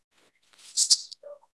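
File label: Voice Acting, Spanish, male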